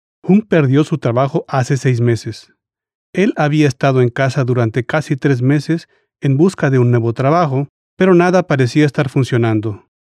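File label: Spanish, Male VO; Voice Samples; Foreign Language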